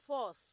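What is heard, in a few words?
"force", Nigerian Pidgin, female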